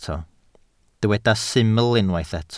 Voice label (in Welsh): "syml" Welsh